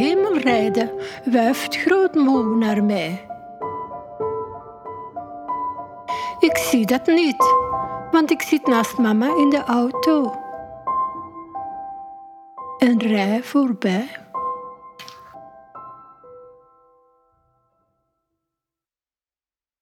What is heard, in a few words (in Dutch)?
Oude vrouw en onnozel kind met muziek; Gedichten met geluid; moeke